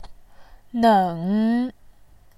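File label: "neng", Mandarin, tone 3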